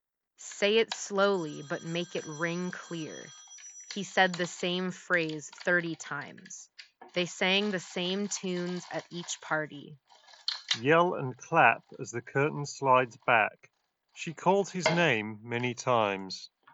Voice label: MIC SAMPLE office; turtle beach; ATLAS AIR